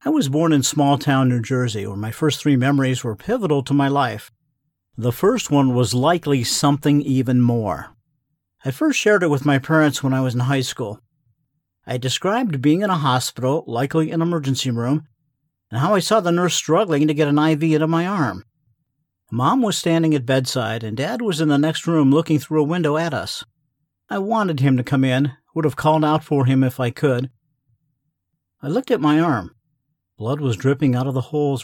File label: Audiobook